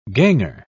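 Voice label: "ganger", English, British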